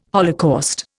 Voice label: "holocaust", Indian